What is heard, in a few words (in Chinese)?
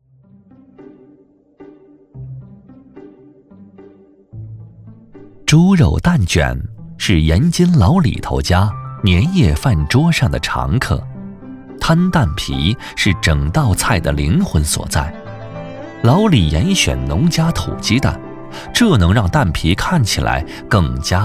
男 舌尖 猪肉蛋卷